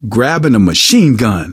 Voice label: take submachine gun, survivor voice, manager; source engine; left 4 dead 2

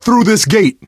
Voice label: misc directional, coach, survivor voice

